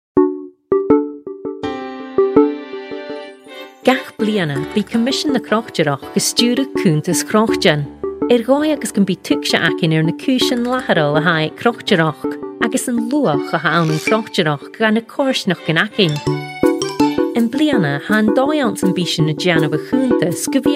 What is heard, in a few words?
Scottish Gaelic